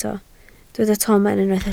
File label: "tomen", Welsh